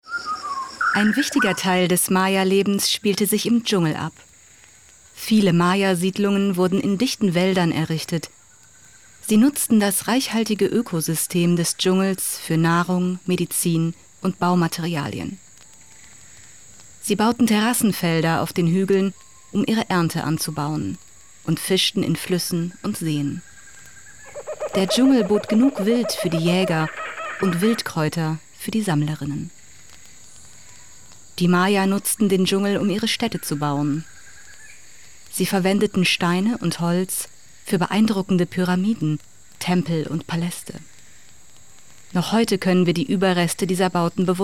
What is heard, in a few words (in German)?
Doku